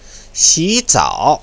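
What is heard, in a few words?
"xizao", Chinese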